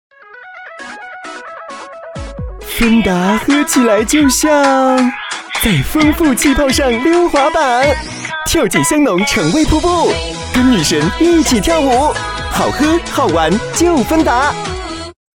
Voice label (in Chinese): 男 广告 年轻活力; 芬达